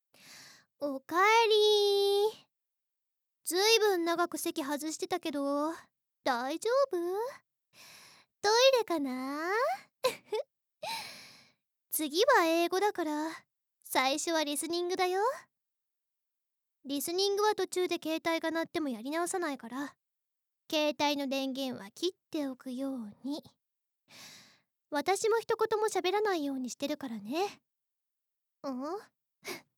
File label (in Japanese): 英語, ASMR